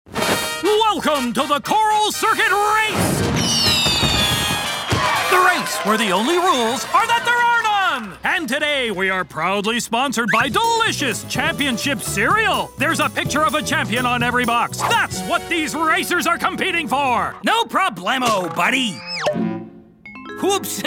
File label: male canadian multiple accents and characters animation compilation